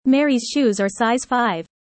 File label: user fast; how tall